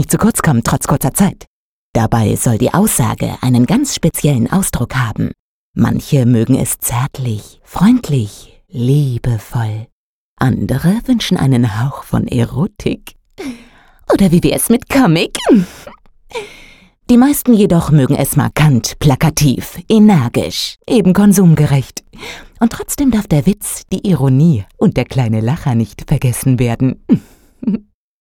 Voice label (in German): werbung